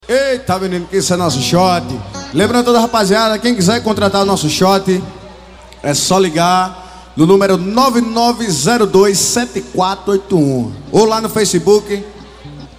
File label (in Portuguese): anuncio